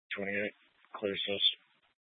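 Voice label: Police Dispatch, Scanner audio; Police 1 Dispatch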